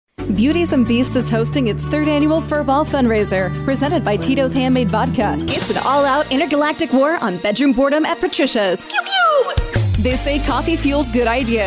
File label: Commercial, Professional Female Voice Over